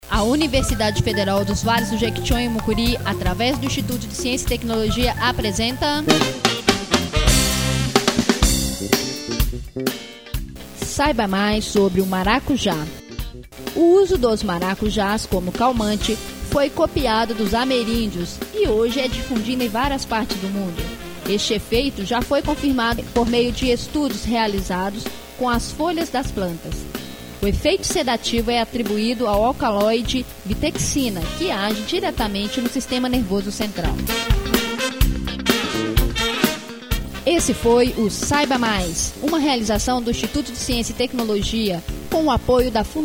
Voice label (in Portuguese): SPOT; MARACUJÁ